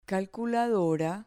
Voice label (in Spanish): "calculadora", Spanish